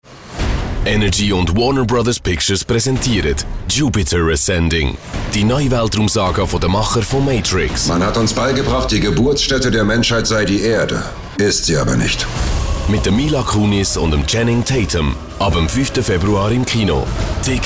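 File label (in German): Swiss German, Werbung Dynamisch; Fremdtake; GSW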